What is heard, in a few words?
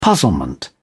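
"puzzlement", British English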